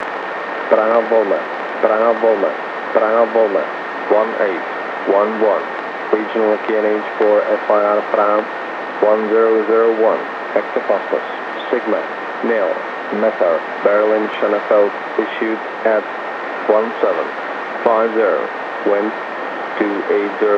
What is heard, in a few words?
Praha VOLMET 128,60MHz